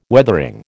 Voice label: "Weathering", American